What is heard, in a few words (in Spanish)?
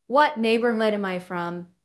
Voice Acting es F0